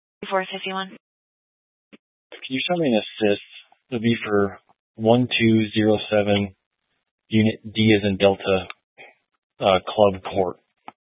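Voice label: Police Dispatch, Scanner audio recording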